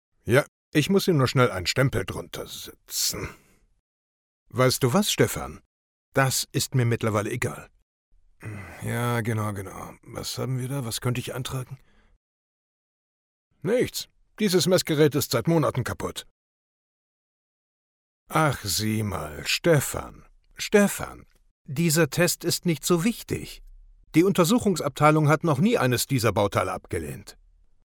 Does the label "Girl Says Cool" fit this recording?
No